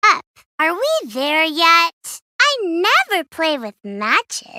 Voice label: Cute girl